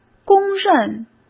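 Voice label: "公认", Mandarin Chinese; chinese flashcards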